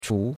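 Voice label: "chu2", Chinese; Cours-de-Chinois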